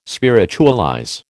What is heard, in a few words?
"spiritualize", American